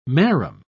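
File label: "marram", British English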